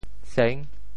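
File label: "seng6", Teochew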